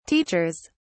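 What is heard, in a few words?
"teachers", British English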